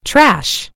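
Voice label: "trash", British English; gcompris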